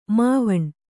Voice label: "ಮಾವಣ್ಣು", Kannada

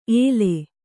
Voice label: "ಎಲೇ", Kannada

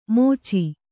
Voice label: "ಮೋಚಿ", Kannada

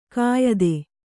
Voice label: "ಕೆಯ್ದು", Kannada

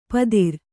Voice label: "ಪತ್ತಿರ", Kannada

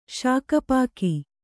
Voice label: "ಶಾಕಪಾಕಿ", kannada

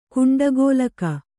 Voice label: "ಕುಂಡಗೋಲಕ", Kannada

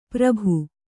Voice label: "ಪುರ್ಬು", Kannada

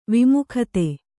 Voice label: "ವಿಮುಗ್ಧ", Kannada

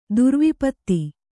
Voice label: "ದುರ್ವಿಪತ್ತಿ", kannada

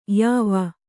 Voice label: "ಯಾವ", Kannada

